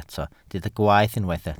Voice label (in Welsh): "gwaeth", Welsh